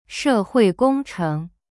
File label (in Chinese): 社会工程 she hui gong cheng, Chinese; cyberchinese